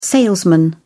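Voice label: "salesman", British English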